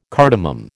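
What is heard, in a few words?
"cardamom", American English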